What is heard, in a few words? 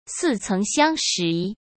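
"似曾相识", Mandarin Chinese; hsk; chinese-flashcards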